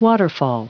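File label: "waterfall", English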